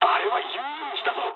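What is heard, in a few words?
player friendly fire mood low, speech japanese; War Thunder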